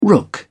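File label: "rook", English, British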